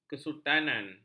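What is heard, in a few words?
"kesultanan", Malay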